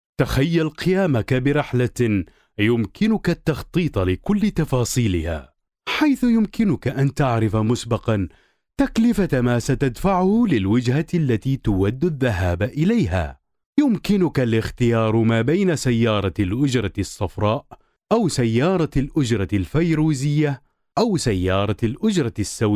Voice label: Home Studio Dry Test